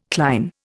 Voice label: "cline", Indian